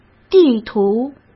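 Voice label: "地图", Mandarin Chinese; hsk; chinese-flashcards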